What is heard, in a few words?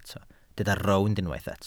"rownd", Welsh